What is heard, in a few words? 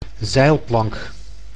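"zeilplank", Dutch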